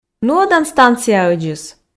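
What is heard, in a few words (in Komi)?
нуӧдан станцияӧдзыс, Komi, female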